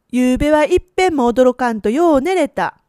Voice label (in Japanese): "odoroku", woman; hogen